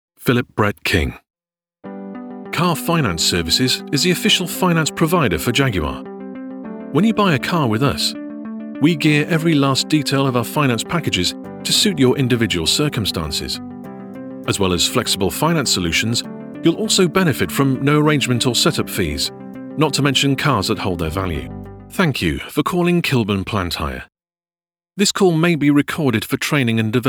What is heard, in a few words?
Corporate Voicereel